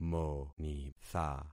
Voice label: "monitha", concat syllables; Affixing experiment